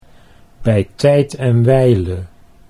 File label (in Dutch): bij tijden wijle, Dutch